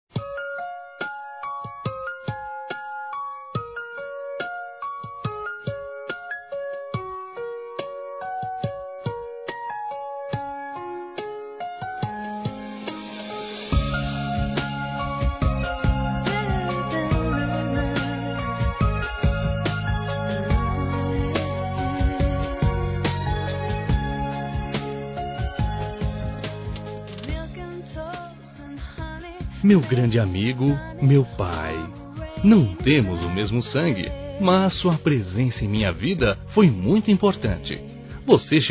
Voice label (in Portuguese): Aniversário Padrasto Masculino; Aniversários; Aniv Familiar